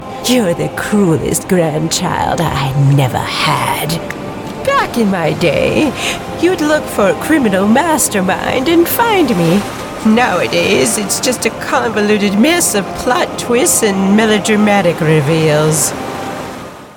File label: English American animation